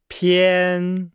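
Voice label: "pian", Chinese; Nihao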